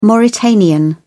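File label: "mauritanian", British English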